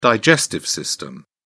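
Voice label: "digestive system", en, British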